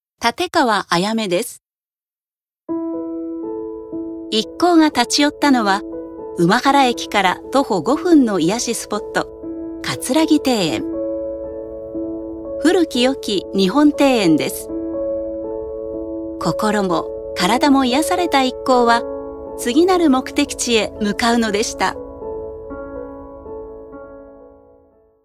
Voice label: ノーマル

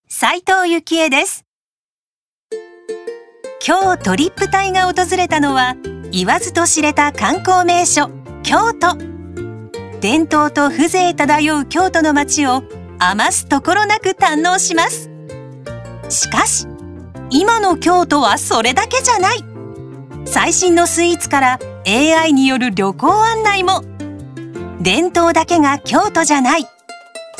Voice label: ナチュラル

